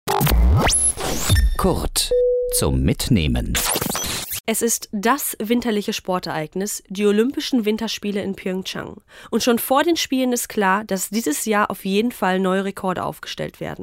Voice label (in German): podcast kommentar; die olympischen dopingspiele; winterspiele